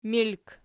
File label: "milk", Archi